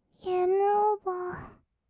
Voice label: ゲームオーバー, kawaii voice; ASMR; 色々なワンショット

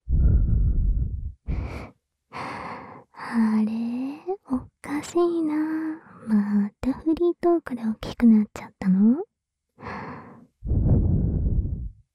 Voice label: フリートーク; フリートークで抜き抜きしちゃうのが癖になったアナタへ

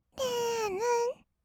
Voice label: るんるんっ, kawaii voice; ASMR; 色々なワンショット

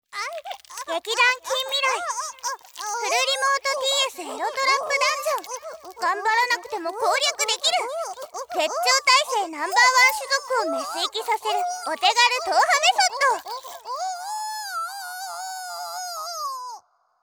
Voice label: タイトルコール; ASMR; フルリモートＴＳエロトラップダンジョン

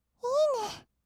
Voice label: "いいね", kawaii voice; ASMR; 色々なワンショット

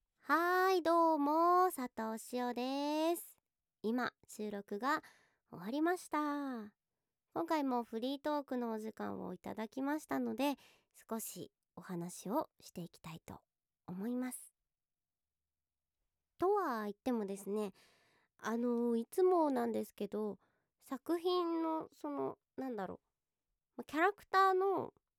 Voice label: フリートーク

